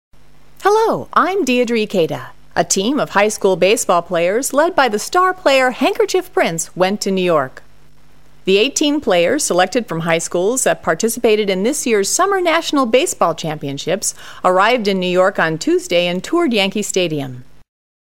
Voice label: usa woman